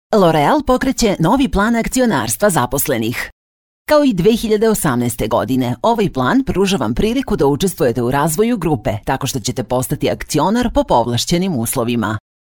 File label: Corporate, Serbian, Female Voice; L Oreal